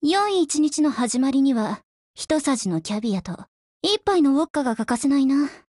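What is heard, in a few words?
HELLO, Japanese; GF Alarm; PPD40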